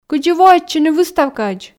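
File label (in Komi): кыдзи воӧдчыны выставкаӧдз, Komi, female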